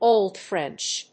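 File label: "Old French", English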